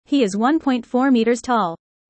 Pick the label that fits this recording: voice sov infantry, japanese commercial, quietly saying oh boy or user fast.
user fast